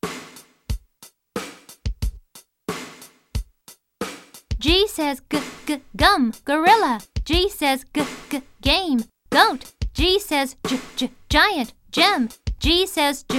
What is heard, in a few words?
Chant